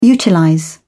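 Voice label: "utilize", British English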